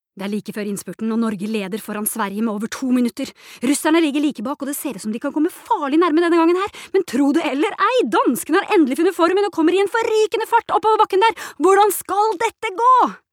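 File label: Norwegian dub commentator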